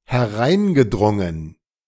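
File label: "hereingedrungen", German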